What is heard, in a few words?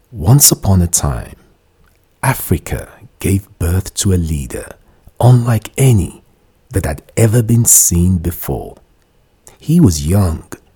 NARRATION